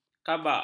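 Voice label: "kabak", Malay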